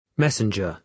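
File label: "messenger", British English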